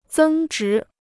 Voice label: "增值", Chinese

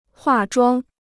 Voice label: "化妆", Chinese

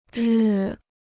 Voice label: "日", Chinese

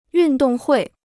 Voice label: "运动会", Chinese

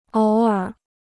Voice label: "偶尔", Chinese

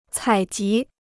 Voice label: "采集", Chinese

